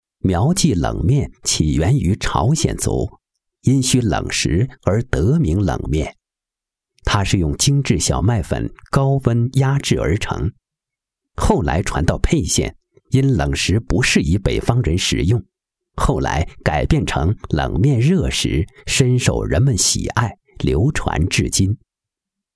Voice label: 男; 冷面

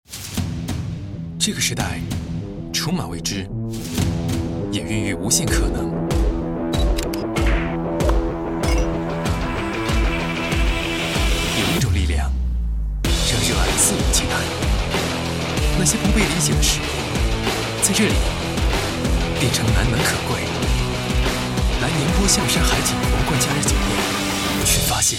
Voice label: 男 广告 活力时尚; 皇冠假日酒店

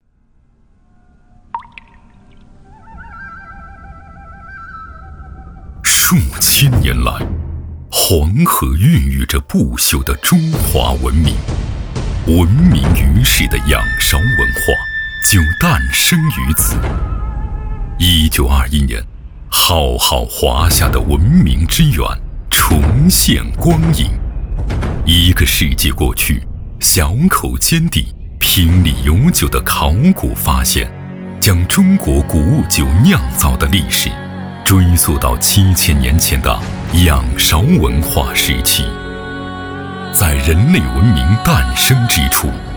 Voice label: 男 宣传 酒类 片 古典大气; 仰韶彩陶坊酒

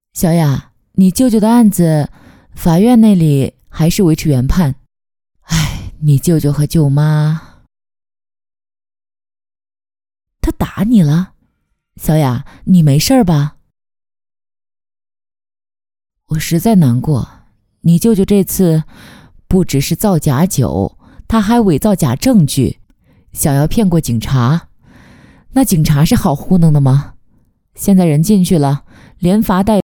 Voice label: 妈妈角色

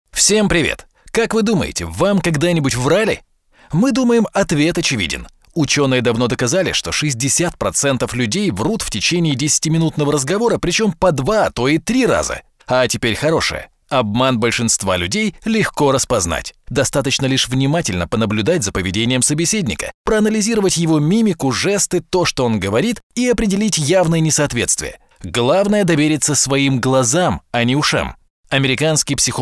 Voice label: 俄语宣传片 轻快

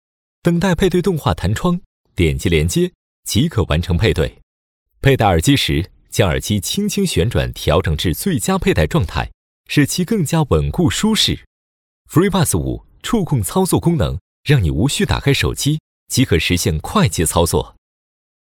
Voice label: 男 产品介绍 耳机 时尚轻快; Honey耳机

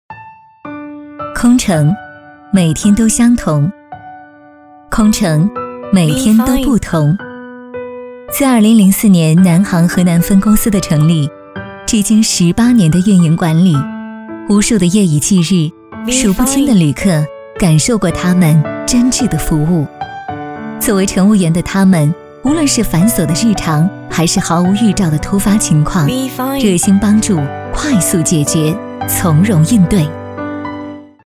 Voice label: 女 专题; 南航配音

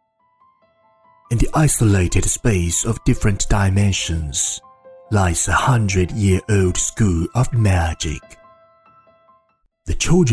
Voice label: 男 英文旁白

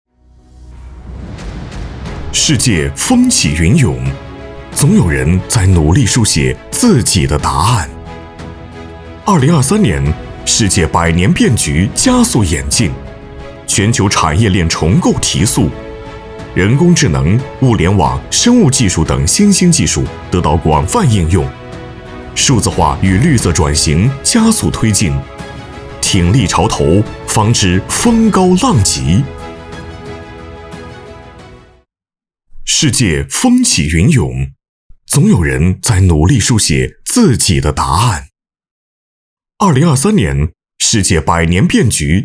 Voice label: 男 专题宣传 大气力度有感情

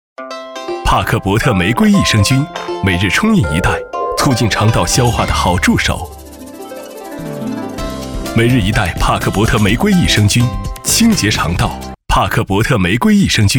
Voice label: 男 广告 男声; 益生菌

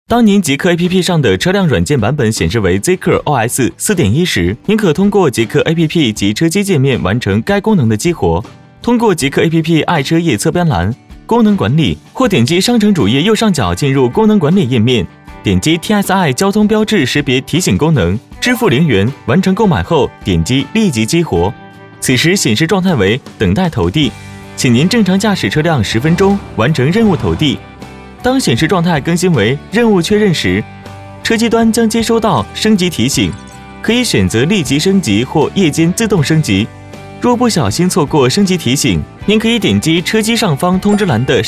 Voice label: 男 APP 宣传; 当您极氪APP

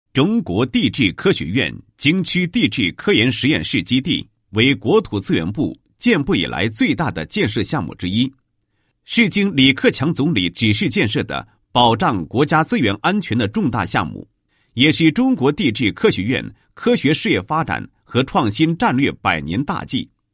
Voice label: 男27-工程项目, 解说词; 地质科研实验基地项目解说词

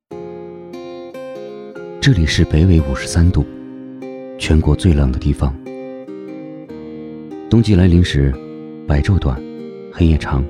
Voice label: 男 TCV广告 磁性质感

